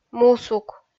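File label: "мусук", Komi, female; kpv audio dict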